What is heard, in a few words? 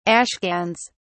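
"ashcans", English, British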